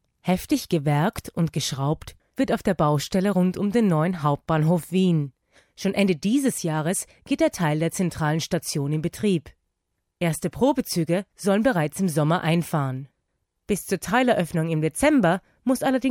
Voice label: german female voiceover example